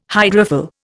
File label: "hydrophil", Indian